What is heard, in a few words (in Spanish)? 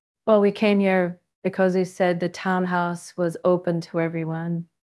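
Voice Acting es F, Spanish, female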